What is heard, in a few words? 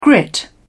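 "grit", British English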